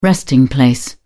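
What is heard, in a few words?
"resting place", English, British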